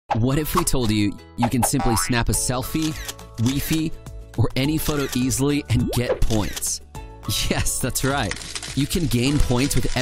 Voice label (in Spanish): narracion inglés USA